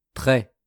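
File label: "tres", French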